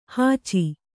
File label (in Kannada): "ಹುಚ್ಚ", Kannada